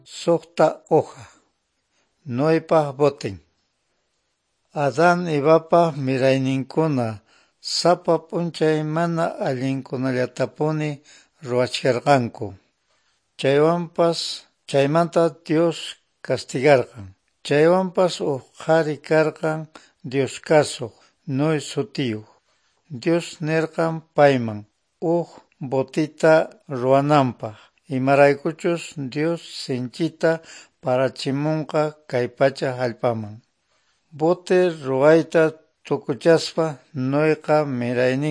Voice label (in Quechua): good news quechua norte de bolivia apolo